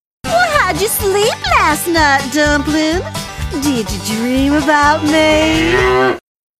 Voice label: southern dumpling